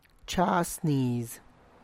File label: pick up ears of corn, Tlacochahuaya Zapotec; (Spanish) levantar la mazorca; txas niz